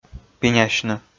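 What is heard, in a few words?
"пинясьны", Komi, male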